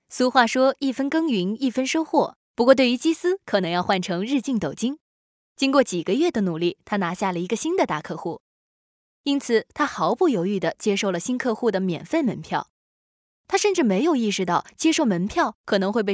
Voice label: Chinese Mandarin Female